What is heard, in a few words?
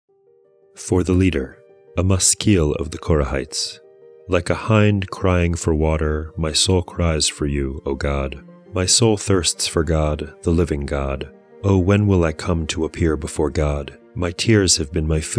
poetry and prayer psalm english